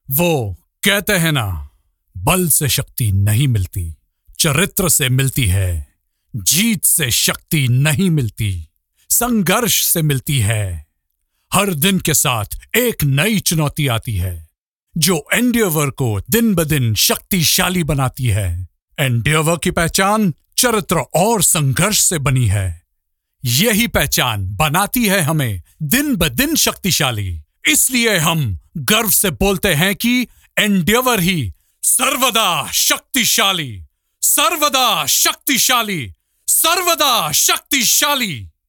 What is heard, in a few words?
Hindi MVO Motivational AV; Lupin Pharma